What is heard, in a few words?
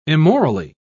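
"immorally", British English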